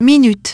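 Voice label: "minutes", English; iOS 4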